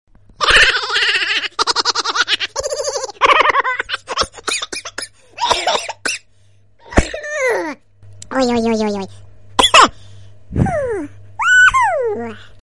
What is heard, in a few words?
funny voice